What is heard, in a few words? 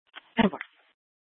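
police dispatch, Scottsdale PD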